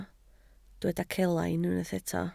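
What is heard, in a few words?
"celain" Welsh, spoken word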